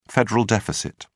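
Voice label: "federal deficit", British English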